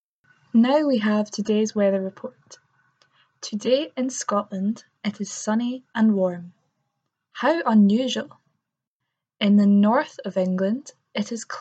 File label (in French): bulletin meteo